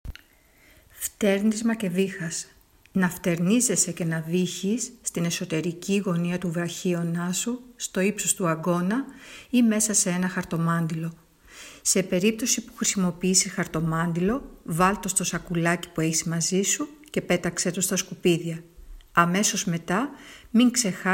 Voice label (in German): Griechisch